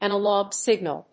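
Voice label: "analog signal", English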